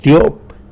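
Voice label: "two", Tlacolula Zapotec; tyop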